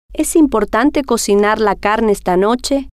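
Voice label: es Importante Cocinar Carne Esta Noche, Spanish, female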